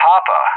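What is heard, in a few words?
"Papa", English; JTAC; Alphabet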